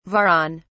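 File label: "varan", British English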